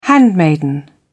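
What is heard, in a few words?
"handmaiden", British English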